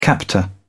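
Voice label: "captor", English, British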